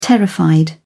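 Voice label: "terrified", English, British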